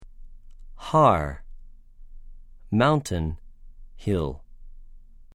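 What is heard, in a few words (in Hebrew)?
"הַר", Hebrew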